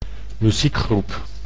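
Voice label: "muziekgroep", Dutch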